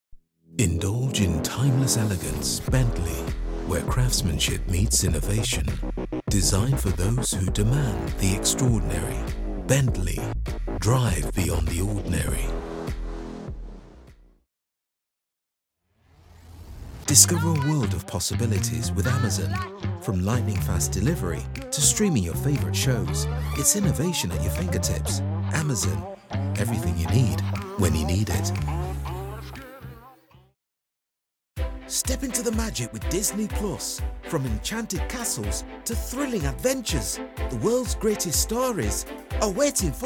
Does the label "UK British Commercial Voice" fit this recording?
Yes